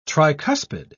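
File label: "tricuspid", British English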